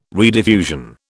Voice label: "rediffusion", British

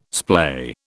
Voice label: "splay", British

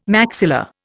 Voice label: "maxilla", Indian

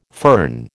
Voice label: "fern", American

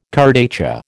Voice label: "kurdaitcha", American

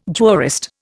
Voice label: "jurist", Indian

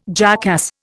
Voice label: "jackass", Indian

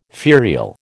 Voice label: "ferial", American

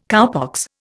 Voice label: "cowpox", Indian

